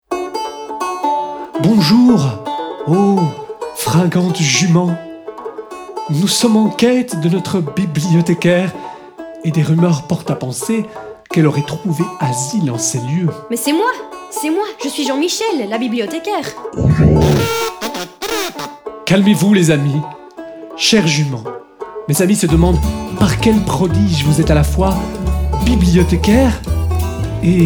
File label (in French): NARRATION